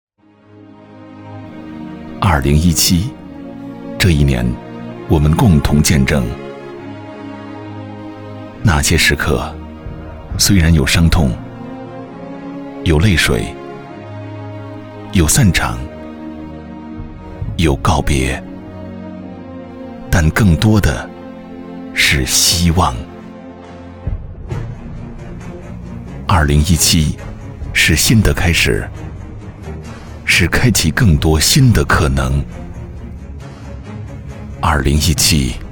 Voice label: 其他 旁白 坚定、成熟、充满希望的男声

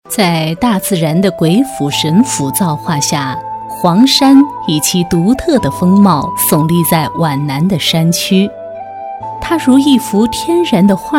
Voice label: 宣传片 旅游 景区 亲切; 黄山

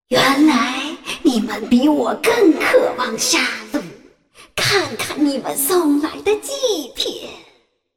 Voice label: 动画 游戏 巫婆鬼类玩家死亡

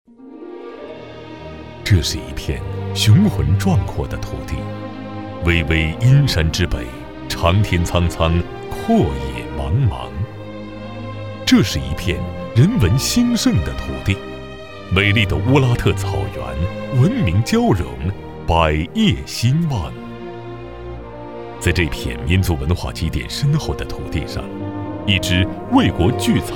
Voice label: 专题 汇报 国税局 低沉, 男国; 乌拉特后旗国税局

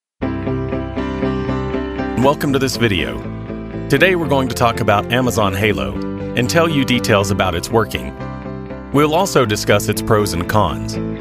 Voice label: 外籍男英 外语 美式英语 轻松叙述 讲述

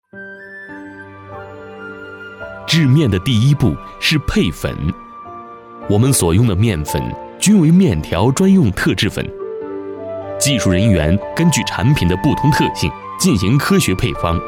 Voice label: 纪录片 舌尖, 男国; 和面机

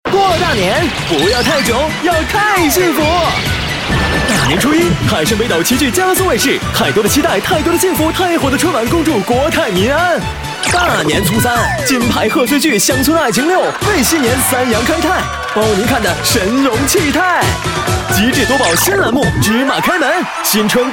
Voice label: MG动画 活力; 宣传片; 病毒; 过年

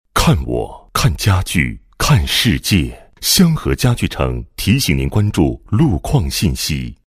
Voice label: 多媒体, 广播提示, 交通台路况提示语; 北京交通台路况提示语

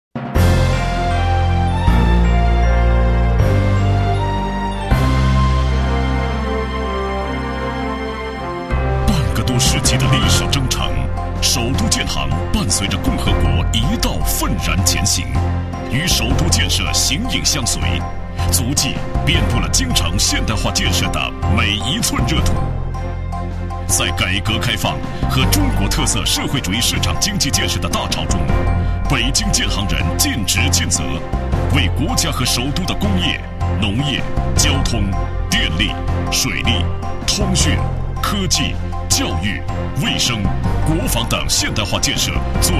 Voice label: 专题 银行 浑厚; 中国建设银行

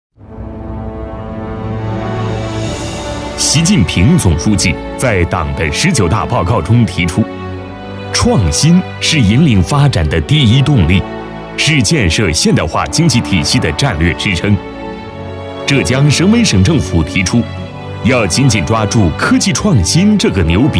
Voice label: 专题, 政府, 科技创新, 沉稳

